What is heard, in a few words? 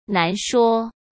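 "难说", Mandarin Chinese; chinese flashcards; hsk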